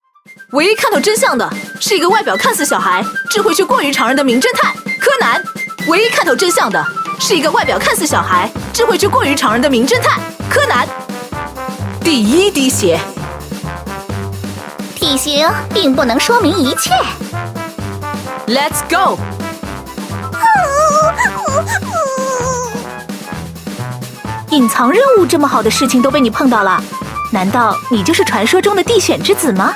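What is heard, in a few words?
female other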